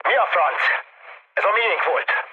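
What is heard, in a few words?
Hungarian player killed ally mood med, speech; War Thunder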